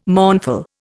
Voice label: "mournful", Indian

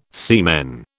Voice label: "semen", British

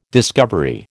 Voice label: "discovery", American

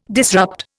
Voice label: "disrupt", Indian

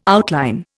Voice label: "outline", Indian

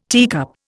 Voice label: "teacup", Indian

